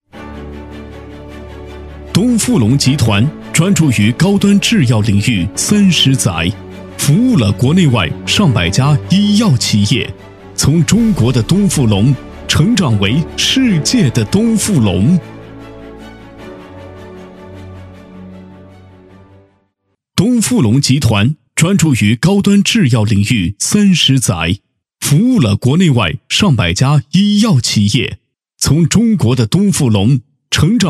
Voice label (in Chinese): 男 企业宣传片 大气厚重; 男A1-成熟浑厚 大气浑厚; 东富龙集团